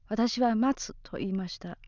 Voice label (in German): "matsu" warten, japanese accent